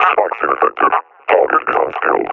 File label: taking fire, combine officer; Half Life Alyx; Half Life Model Pack